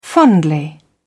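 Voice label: "fondly", British English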